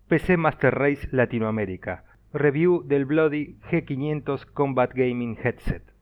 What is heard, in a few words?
Mic Test G500; PCMR LATAM